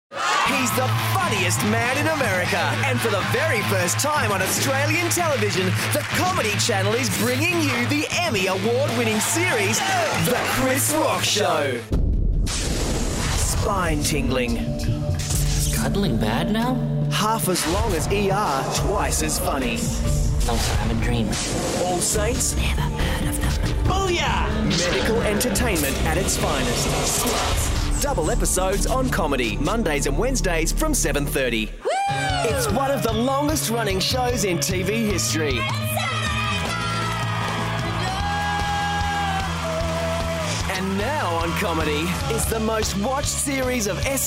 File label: promos